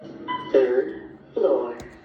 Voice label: lift 3rd floor; otis